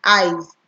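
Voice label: "eyes", Nigerian Pidgin, female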